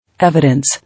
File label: "Evidence", English